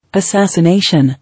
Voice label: "Assassination", English

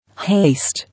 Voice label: "Haste", English

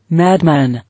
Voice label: "Madman", English

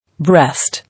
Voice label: "Breast", English

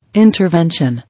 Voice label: "Intervention", English

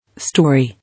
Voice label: "Storey", English

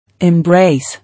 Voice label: "Embrace", English

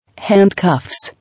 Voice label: "Hand-cuffs", English

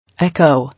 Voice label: "Echo", English

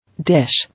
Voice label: "Dish" English

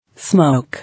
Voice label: "Smoke", English

